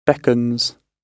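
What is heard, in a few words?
"beckons", British English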